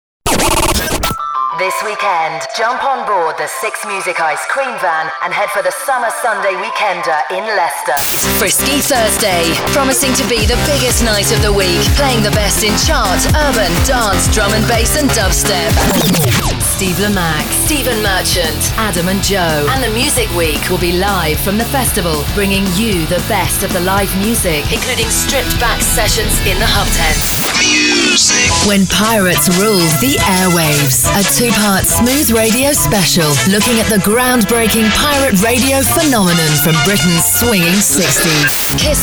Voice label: promo